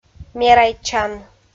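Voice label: "мерайтчан", Komi, female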